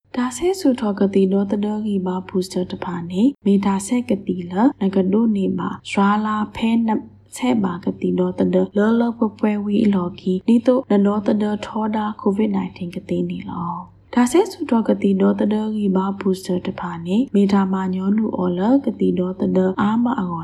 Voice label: Boosters PSA KAREN